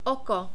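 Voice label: "oko", czech, short vowels, male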